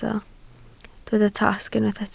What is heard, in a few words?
"tasg", Welsh